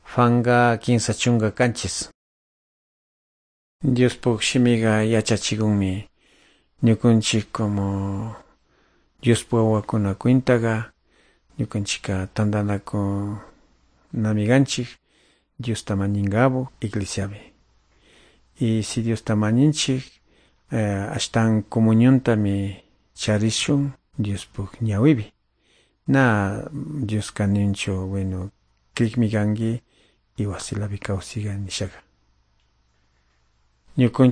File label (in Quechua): good news quichua de calderon